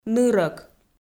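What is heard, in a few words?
"нырӧк", Komi, female; audio dict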